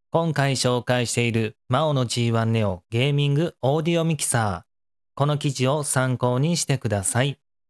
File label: maono g1 neo